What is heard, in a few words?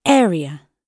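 "area", British English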